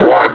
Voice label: "one", suppressor; Half Life Alyx; combine